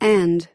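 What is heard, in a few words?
"and", American English; pronunciations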